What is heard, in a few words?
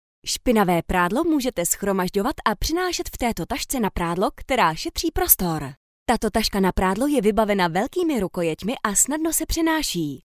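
Czech, female, e-learning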